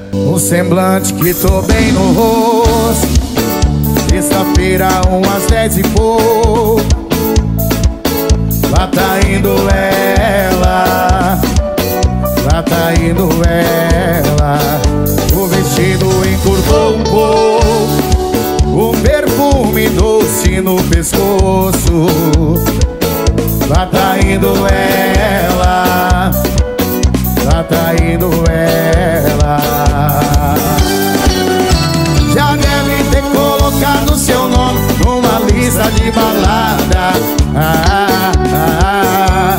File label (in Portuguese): locutor a voz magnifica